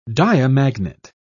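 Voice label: "diamagnet", British English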